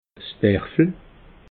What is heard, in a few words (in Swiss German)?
"derfel", alsace